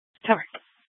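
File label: Scottsdale PD North Dispatch; Nth Dispatch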